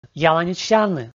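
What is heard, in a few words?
"яланитшсявны", Komi, male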